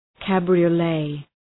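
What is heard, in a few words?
"cabriolet", English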